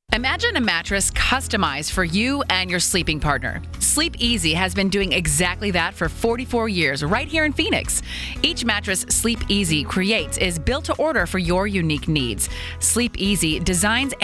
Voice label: Radio ad; Iheart; Latex Mattress Buyers Guide